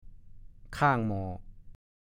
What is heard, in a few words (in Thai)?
"ค่างหม่อ", ภาษาโคราช; วางหม้อบนเตาเพื่อทำอาหาร